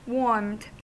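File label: "warmed", American English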